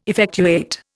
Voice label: "effectuate", Indian